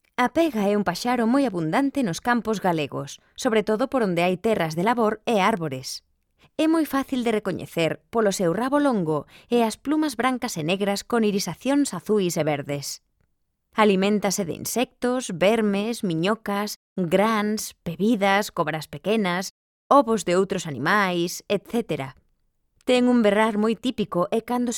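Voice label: Galician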